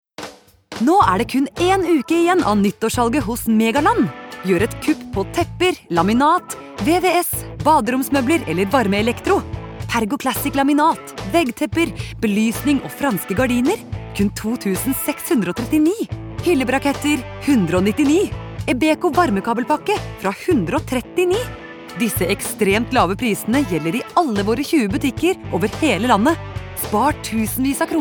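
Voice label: no hard sell, Norwegian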